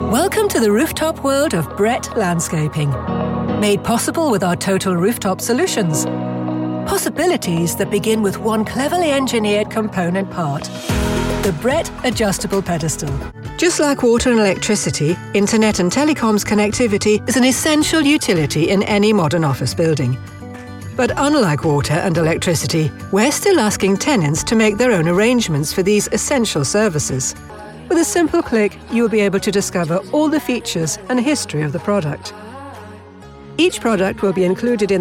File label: Corporate